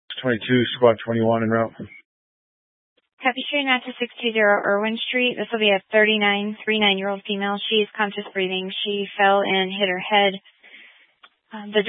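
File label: Fire Dispatch, scanner audio recording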